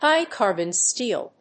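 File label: "High carbon steel", English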